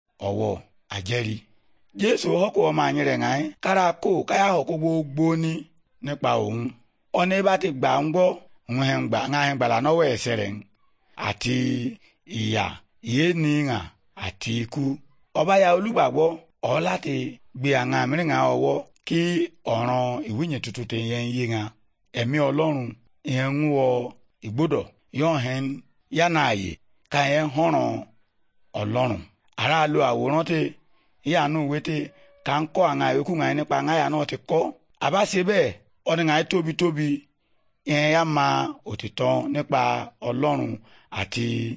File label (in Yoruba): good news yoruba, bible